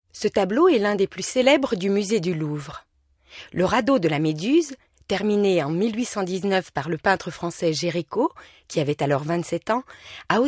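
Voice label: audioguide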